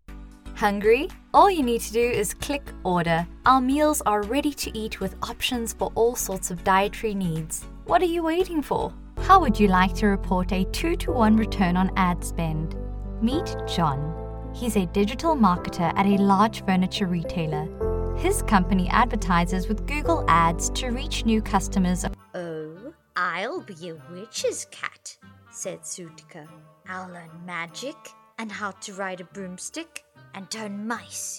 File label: English SA F Voicereel; accent of south africa